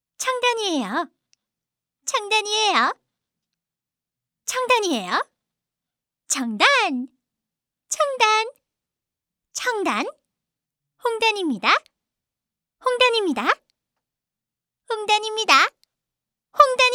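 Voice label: korean female character; voice demos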